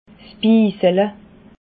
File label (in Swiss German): "bisele", alsace